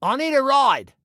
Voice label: Need Ride, Male

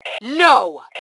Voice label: Global No, Female

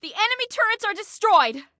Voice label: Enemy Turrets, Female; Female 02

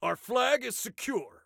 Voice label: Flag Secure, Soldier Male

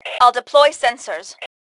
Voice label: Deploy Sensors, Female; T2 Female 01

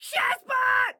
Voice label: "Shazbot", Excited Guy

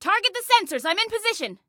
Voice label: Target Sensors, Female; Female 02

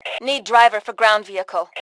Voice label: Need Driver, Female; T2 Female 01

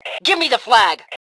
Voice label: Flag Give Me, Female; T2 Female 02

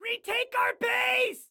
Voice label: Base Retake, Excited Guy

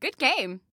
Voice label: Compliment Good Game, Female

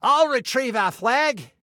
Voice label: Flag I Retrieve, Male

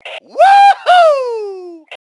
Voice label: "Woohoo", Male; T2 Male 01

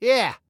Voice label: Team Yes, Male; Male 02

